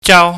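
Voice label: "jau", Cantonese, high falling tone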